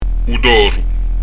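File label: "u toru", Corsican; u d'òru; Son "adouci"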